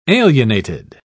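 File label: "alienated", British English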